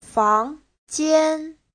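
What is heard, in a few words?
"fángjiān", Mandarin Chinese; 房间; (danh từ) phòng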